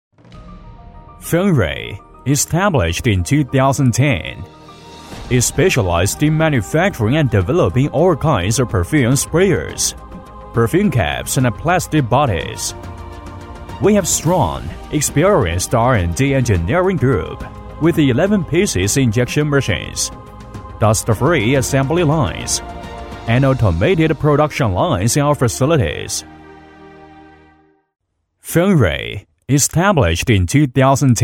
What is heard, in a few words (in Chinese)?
男英 外语 国内英语 科技; 专题丰瑞喷雾